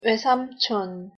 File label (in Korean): "외삼촌" Korean; pronunciation